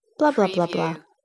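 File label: Woman Blah Blah; speak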